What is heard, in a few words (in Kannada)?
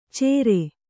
"ಚೇರೆ", kannada